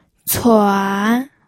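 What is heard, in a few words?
"cuan", Mandarin Chinese, third tone; pinyin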